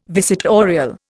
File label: "visitorial", Indian